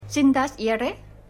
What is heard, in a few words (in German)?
Thai Polizistin; Bridget Jones 2